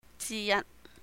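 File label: "jì yāt", one of, Cantonese; 之一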